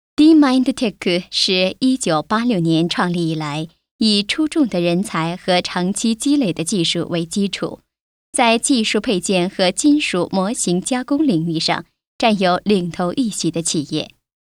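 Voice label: chinese mandarin female narration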